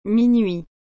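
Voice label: "minuit", French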